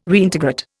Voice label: "Reintegrate", Indian

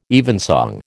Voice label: "evensong", American

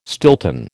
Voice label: "Stilton", American

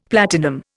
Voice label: "platinum", Indian; Dictionary

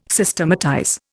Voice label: "Systematize", Indian

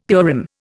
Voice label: "Purim", Indian; Dictionary

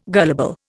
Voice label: "gullible", Indian

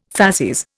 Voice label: "facies", Indian

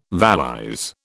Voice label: "valise", British

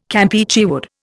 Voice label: "Campeachy wood", Indian

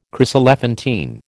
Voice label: "chryselephantine", American

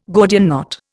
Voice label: "Gordian knot", Indian

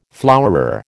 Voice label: "flowerer", American

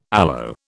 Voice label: "aloe", British

